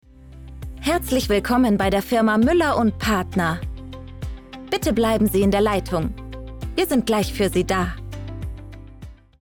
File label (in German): Telefonansage Klassisch Freundlich